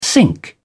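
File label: "sync", English, British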